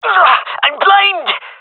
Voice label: blinded, gendarmerie male; vo